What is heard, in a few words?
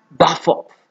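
"baff up", Nigerian Pidgin, male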